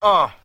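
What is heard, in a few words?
"uh", male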